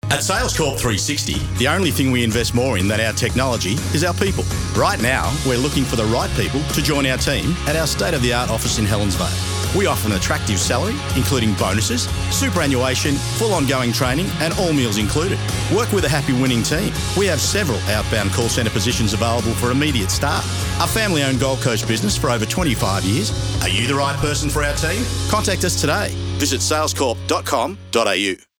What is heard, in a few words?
radio ad; SCM360; salescorp